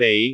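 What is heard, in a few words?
"bei5", Cantonese; google B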